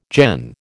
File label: "gen", American